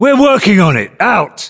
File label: voiceovers, US; english